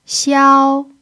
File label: "xiao1", chinese